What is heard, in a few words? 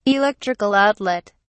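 "electrical outlet", British English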